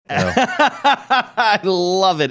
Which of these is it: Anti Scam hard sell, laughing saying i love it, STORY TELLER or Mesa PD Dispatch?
laughing saying i love it